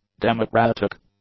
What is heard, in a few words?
"democratic", english; adjectives